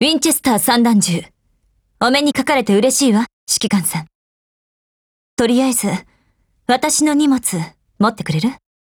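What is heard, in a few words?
GAIN, Japanese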